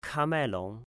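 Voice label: "Kamailong", Chinese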